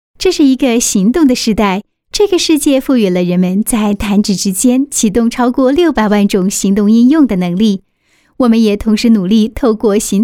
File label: Mandarin Taiwanese Voice, Mandarin Taiwan Female